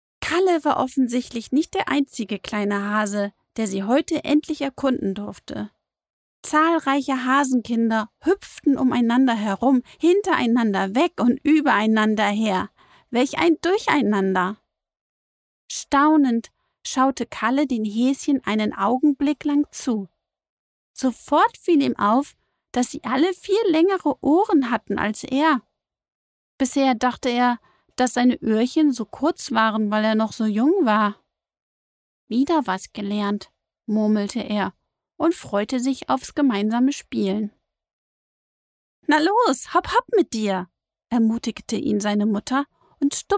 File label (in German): hoerbuch hoerprobe; einfach kalle; zwergenstark